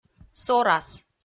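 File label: "сорас", Komi-Zyrian, female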